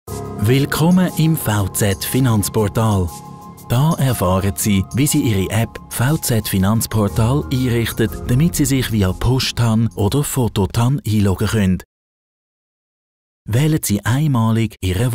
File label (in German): erklaervideo, Tutorial; Vermögenszentrum – Tutorial